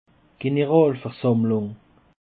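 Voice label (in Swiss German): "generalversammlung", Alsatian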